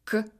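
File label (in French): son k, Russian; phonetique et graphie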